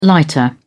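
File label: "lighter", English, British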